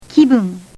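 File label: "kibun", Japanese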